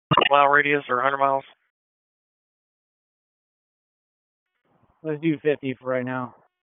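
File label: Police Southwest District Dispatch, p25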